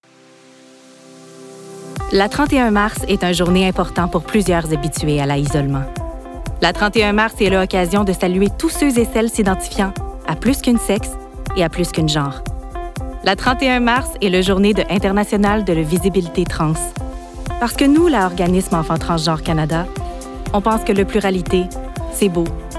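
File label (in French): Amicale Rassurante, Bienveillante - articulation exceptionnelle - Québécois soutenu; Journée internationale de la visibilité trans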